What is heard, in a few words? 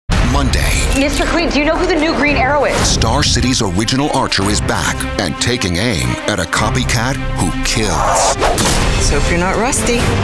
promo, voice